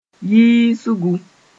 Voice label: "yiisgu", Mooré